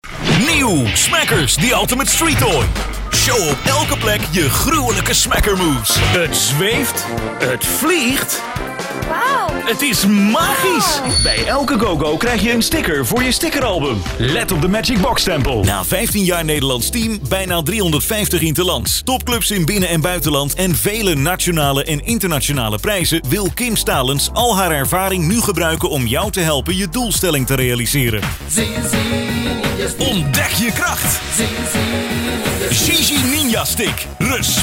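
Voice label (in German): Dutch, Fremdtake Aufgeregt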